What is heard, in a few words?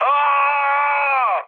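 Serbian damaged pilot mood med, speech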